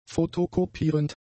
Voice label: "fotokopierend", German; adjectives